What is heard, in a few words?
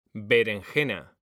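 "berenjena", Spanish, male; mekanta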